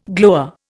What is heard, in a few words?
"glower", Indian